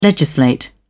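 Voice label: "legislate", British English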